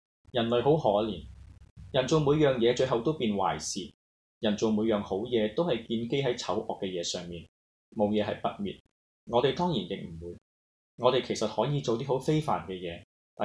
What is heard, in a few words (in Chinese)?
男生獨白; 《龐克搖滾》; Simon Stephens